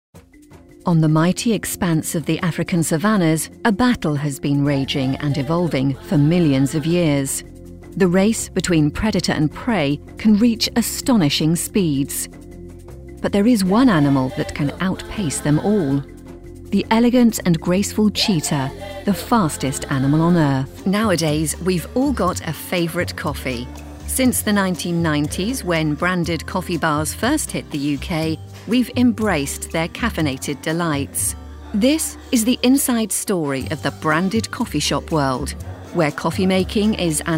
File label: TV and Online Narration